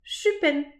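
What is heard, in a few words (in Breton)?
"chupenn", Breton; petit precis de prononciation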